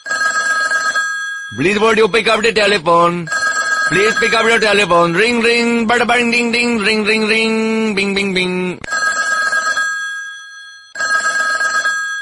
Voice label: Pick Up The Telephone, Funny Ringtone

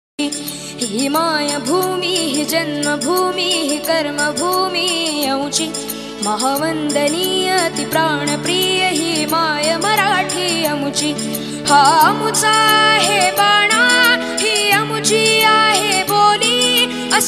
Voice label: Marathi Ringtone

